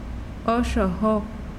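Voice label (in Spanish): "su uña", mazahua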